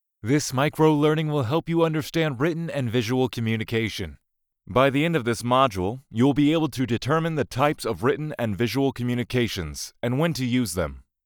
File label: elearning sample